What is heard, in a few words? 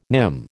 "nim", American